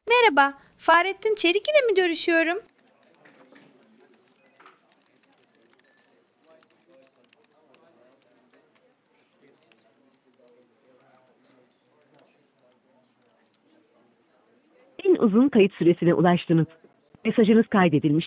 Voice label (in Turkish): Yapay Zeka Arama, Görüşme Kaydı; Tüm uzun kayıt süresine ulaştınız. Mesajınız kaydedilmiştir.